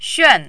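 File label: "xuan4", mandarin, falling tone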